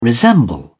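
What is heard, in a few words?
"resemble", British English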